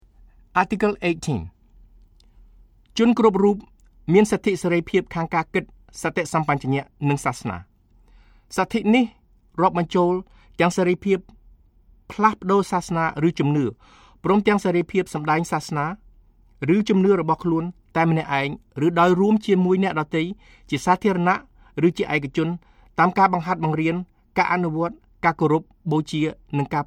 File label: Khmer